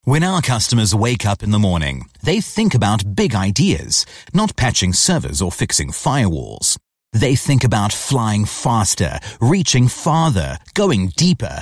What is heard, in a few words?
english international corporate videos